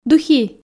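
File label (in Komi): "духи", Komi, female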